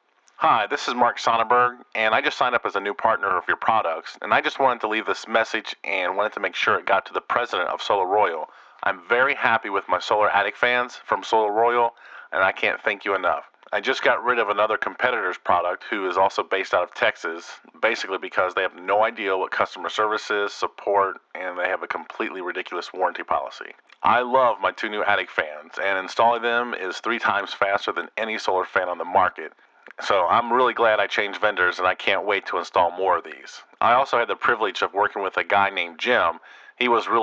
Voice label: voicemail; Sonnaburg